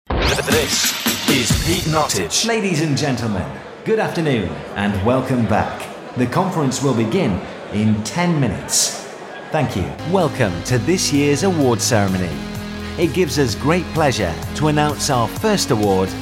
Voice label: voice of god

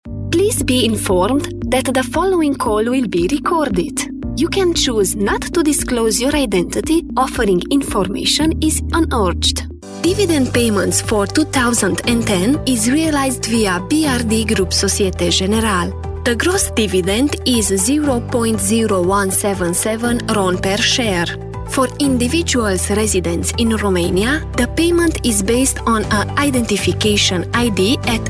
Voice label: romanian english

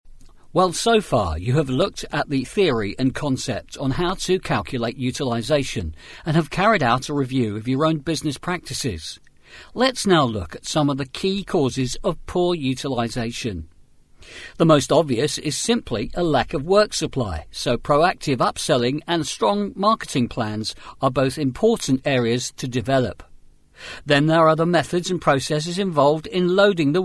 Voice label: e-learning

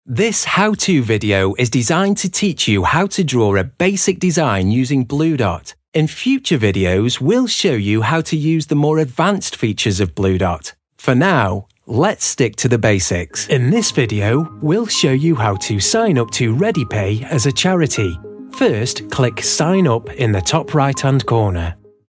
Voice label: web explainers

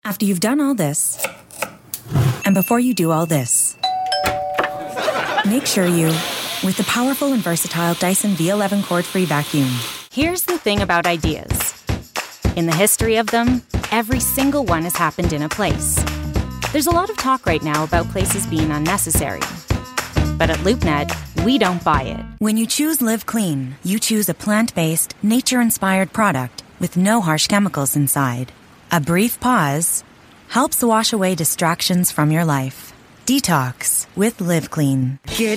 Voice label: american voice canadian reads

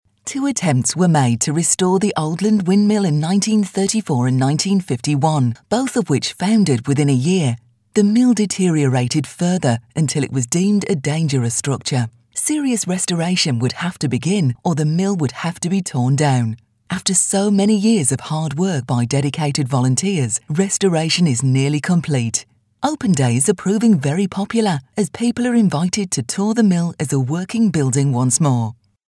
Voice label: west midlands accent

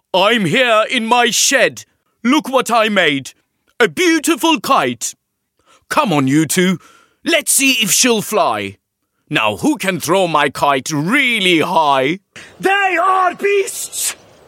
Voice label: turkish male character

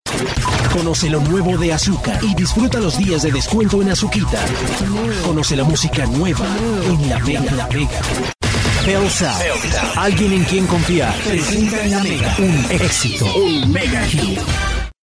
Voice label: radio

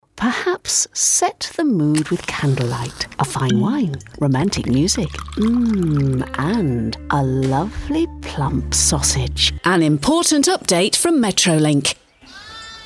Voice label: commercials

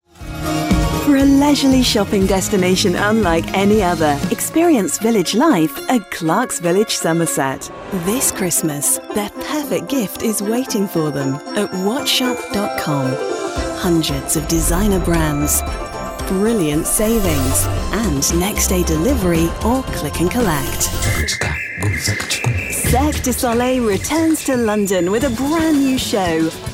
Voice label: tv commercials

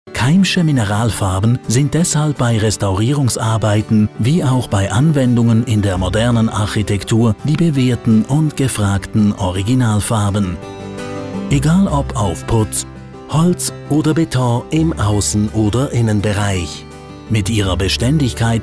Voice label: german male narration

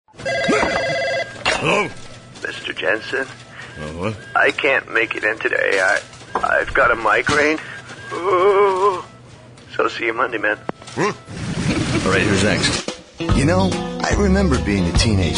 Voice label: canadian commercial

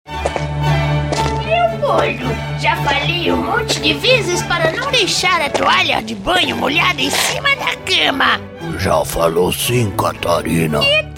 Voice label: brazilian character

